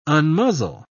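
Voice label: "unmuzzle", British English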